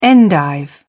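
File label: "endive", British English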